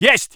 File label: voice sov infantry